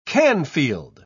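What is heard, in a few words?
"canfield", British English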